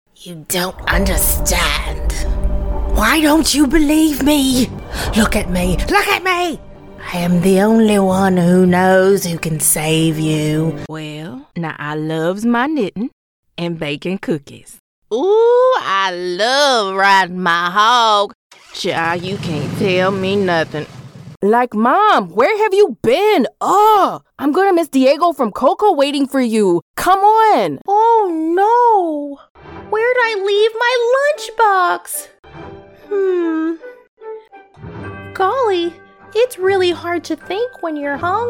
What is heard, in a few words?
Character, female voices